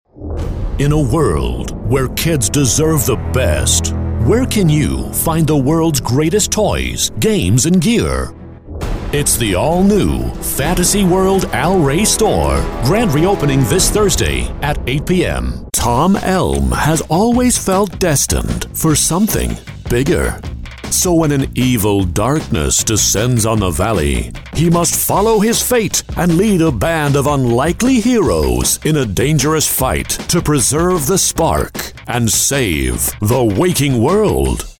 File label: US Promos